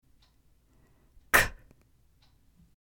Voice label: alphasounds c